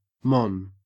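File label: "mon", Australian English; pronunciations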